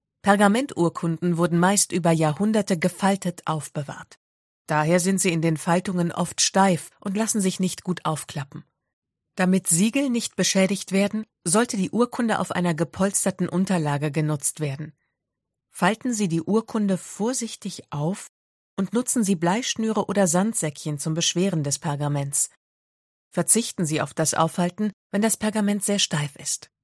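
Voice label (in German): Erklärfilm Pergamenturkunden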